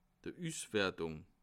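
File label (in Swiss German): "uswertung", Alsatian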